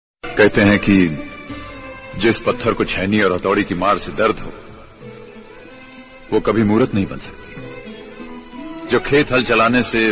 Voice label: Hindi Speech Ringtone